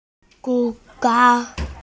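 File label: "chu2fang2", Mandarin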